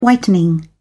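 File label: "whitening", British English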